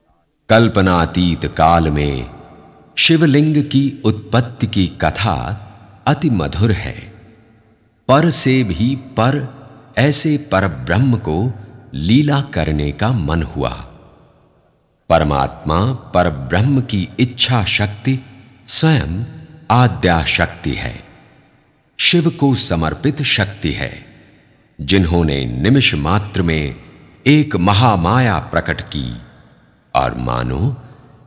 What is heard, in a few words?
commentary om mantra